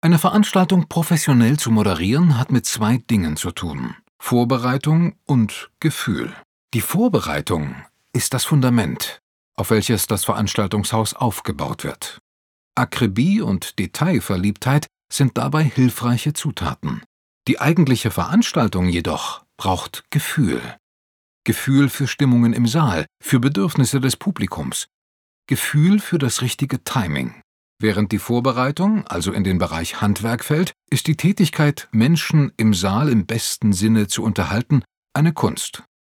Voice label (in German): erzaehlung quasi bewerbung